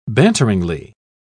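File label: "banteringly", British English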